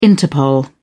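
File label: "interpol", British English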